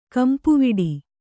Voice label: "ಗುಂಪುವಡೆ", kannada

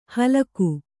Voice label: "ಹಲಾಕು", kannada

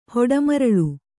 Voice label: "ಹೊಡೆಮರಳ್", Kannada

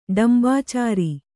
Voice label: "ಡಂಭಾಚಾರ", Kannada

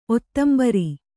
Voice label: "ಔದುಂಬರ", Kannada

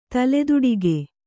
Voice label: "ತಲೆದುಡಿಗೆ", Kannada

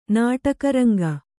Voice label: "ನಾಟಕರಂಗ", kannada

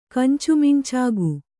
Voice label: "ಕಂಚುಮಿಂಚಾಗು", Kannada

